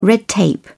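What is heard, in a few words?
"red tape", English, British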